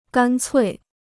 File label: "干脆", Chinese